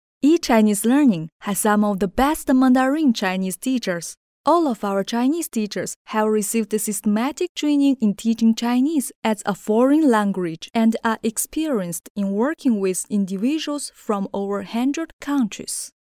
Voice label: female chinese voice over english

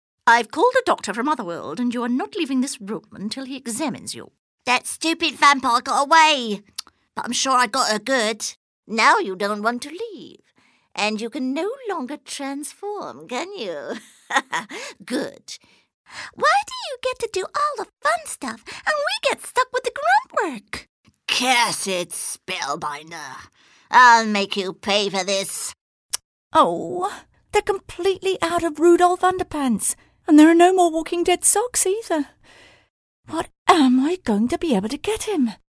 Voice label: female british voice over character; english british

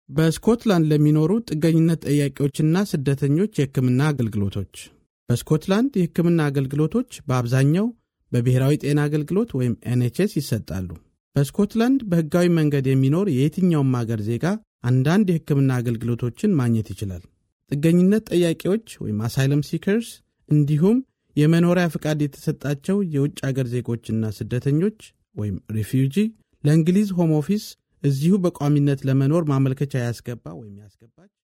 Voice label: male amharic voice over narrative

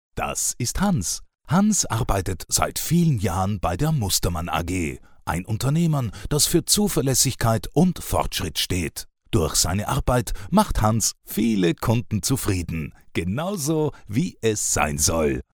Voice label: male german voice over upbeat

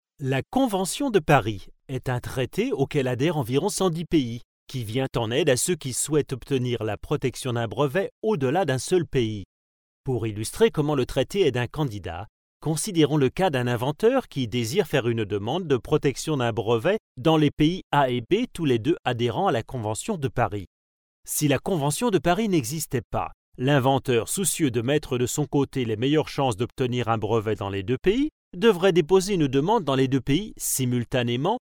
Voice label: male french voice over talent narrative

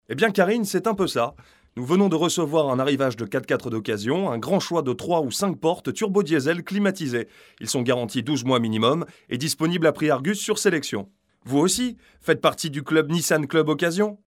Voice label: male french voice over sales, france

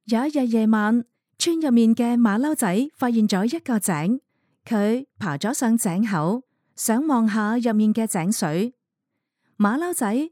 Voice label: female cantonese voice over narrative

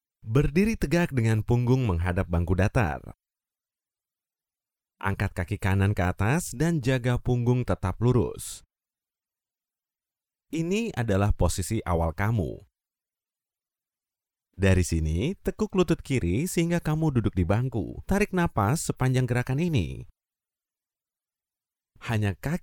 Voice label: male indonesian voice over narrative

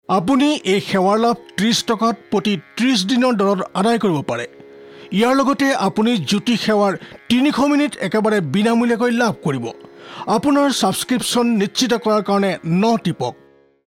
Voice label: male assamese voice over narrative

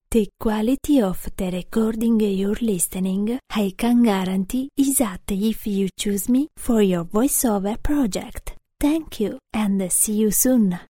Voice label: female italian voice over english

